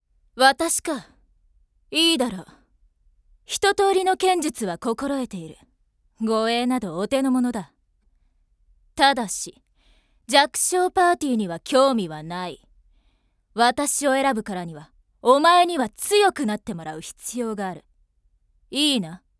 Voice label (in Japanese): 20代 お姉さん系